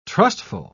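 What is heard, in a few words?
"trustful", British English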